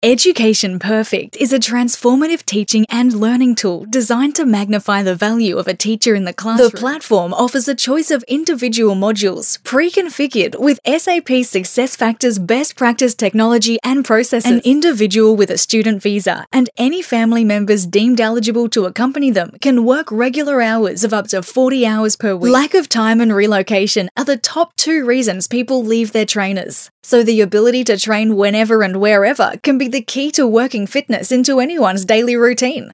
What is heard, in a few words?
Corporate